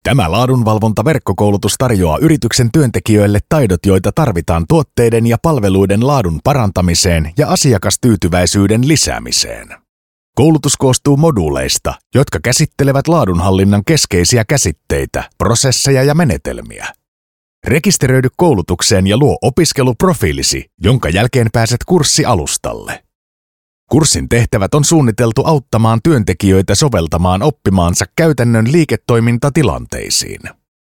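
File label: Finnish, Elearning; voice demos; GoLocalise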